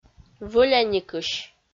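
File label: "вӧляникысь", Komi, female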